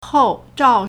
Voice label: "hou4 zhao4", Chinese